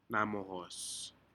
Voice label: "namohos", Aneityum; fish